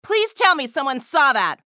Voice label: chatter positive; athena; frag